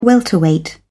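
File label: "welterweight", British English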